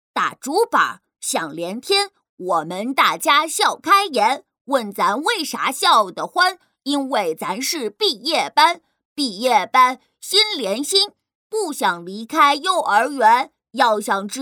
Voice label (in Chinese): 女-快板-幼儿园男童